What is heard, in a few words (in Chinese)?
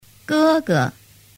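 ge ge, Chinese; thanh nhe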